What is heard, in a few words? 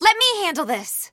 ping clear troopers, female